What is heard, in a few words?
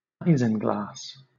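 "isinglass", British English; pronunciations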